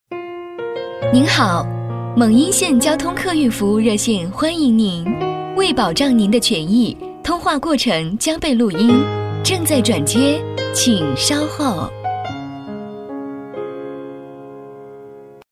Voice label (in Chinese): 女 电话彩铃 客运服务热线（温馨）; 甜美亲切